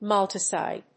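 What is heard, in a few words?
"maltoside", English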